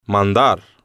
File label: "mandar", Spanish